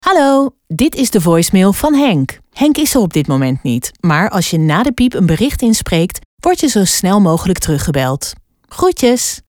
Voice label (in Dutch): Female Persoonlijk, voicemail